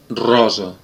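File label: pink, Serbian; gcompris; colors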